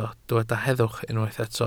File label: "heddwch", Welsh